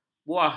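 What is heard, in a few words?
"buah", Malay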